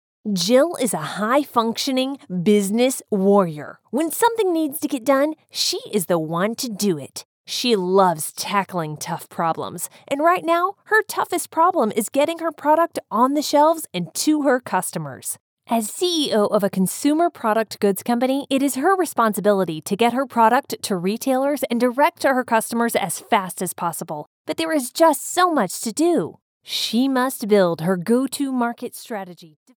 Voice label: B2B EXPLAINER VIDEO - Adventure Voice